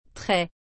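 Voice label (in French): "très", French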